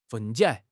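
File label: "分解", Chinese; HSK6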